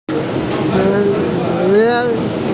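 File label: "sen1lin2", Mandarin Chinese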